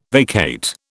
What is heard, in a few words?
"vacate", British